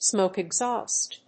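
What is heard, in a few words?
"SMOKE EXHAUST", English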